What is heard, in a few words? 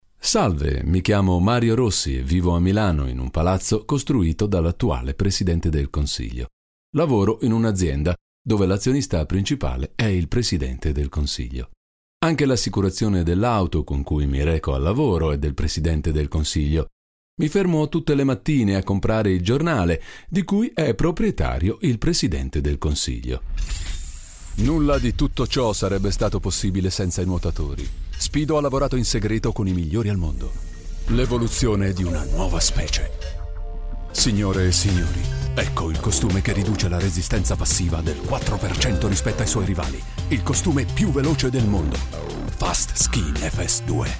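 Italian Corporate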